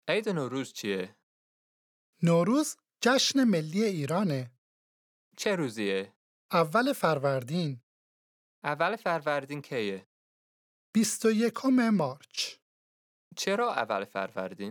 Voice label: Dialogue lesson Farsi